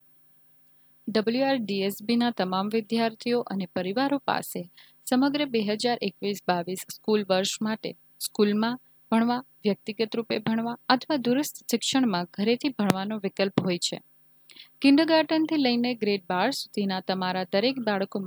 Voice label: Intro Gujarati